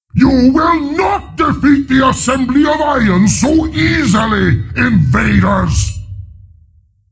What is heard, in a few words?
Aggro, Creature; Steelbreaker